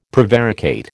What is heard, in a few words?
"prevaricate", American